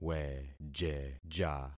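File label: "wejeja", concatenated syllables; Affixing experiment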